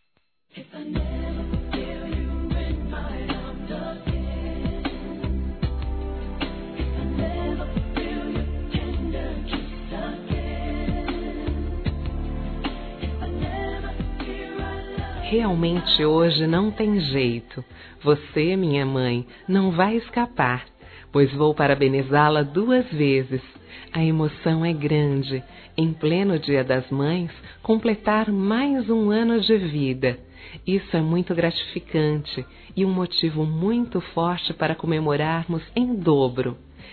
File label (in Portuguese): ANIVERSARIANTE F; Telemensagens 2017